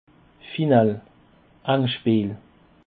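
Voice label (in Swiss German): "finale", Alsatian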